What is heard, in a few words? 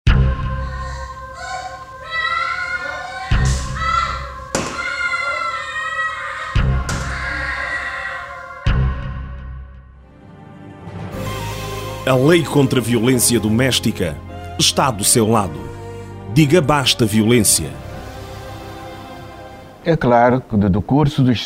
Radio Spot Portuguese; Angola